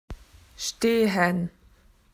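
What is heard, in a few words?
"to prick", Australian English; PictureDictionary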